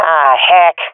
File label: scared, swat fem; vo; agents; Source Model Packs; Counter Strike Model Pack; CS2